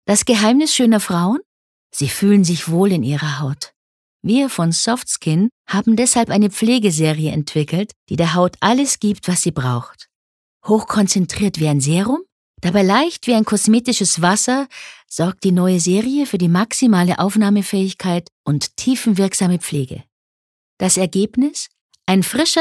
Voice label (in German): Sanft; Soft Skin